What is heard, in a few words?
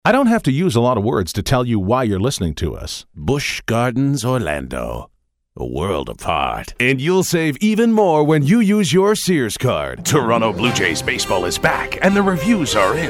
Commercial VO